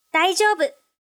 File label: Vo Female Daijyoobu; gekiban